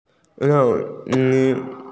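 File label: "strawberry", Mandarin; cao3mei2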